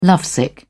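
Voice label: "lovesick", British English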